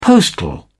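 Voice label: "postal", British English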